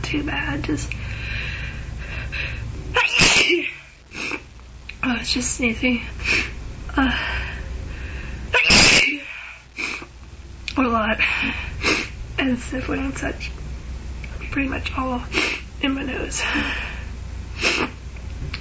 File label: little cold, female